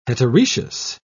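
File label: "heteroecious", English, British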